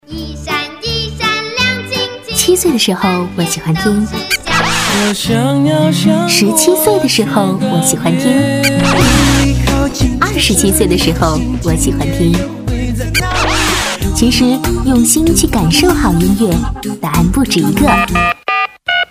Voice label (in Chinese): 女 栏目片头 短; 全城都在点